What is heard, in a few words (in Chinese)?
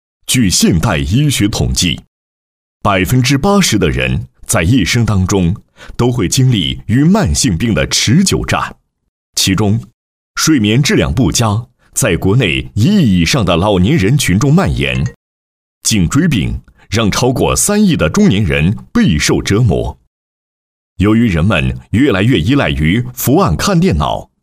男 品牌宣传 宣传配音; 郭有颈枕